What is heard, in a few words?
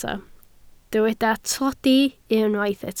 "tlodi", Welsh